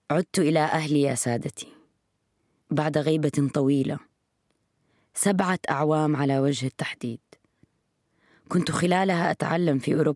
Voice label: Arabic

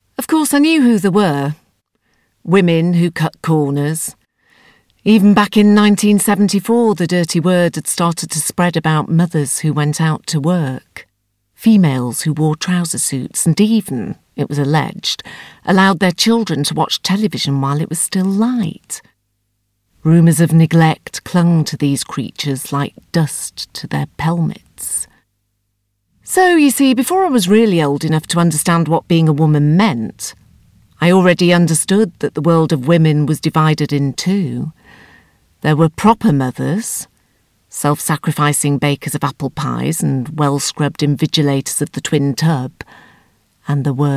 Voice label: Narration

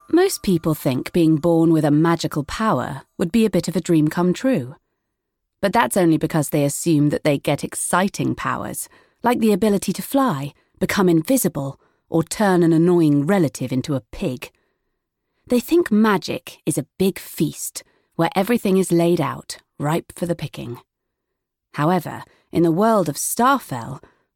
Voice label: Narration

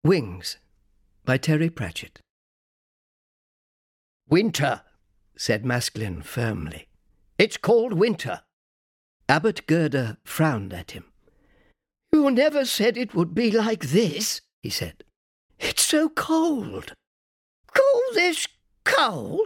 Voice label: Narration; Natural Accent: RP

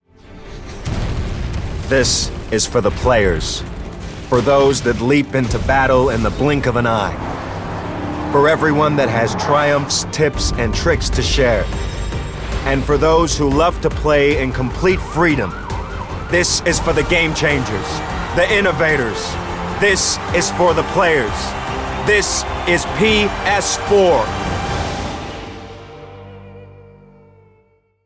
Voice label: American; Sony PS4

